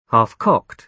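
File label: "half cocked", British English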